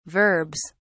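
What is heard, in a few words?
"verbs", British English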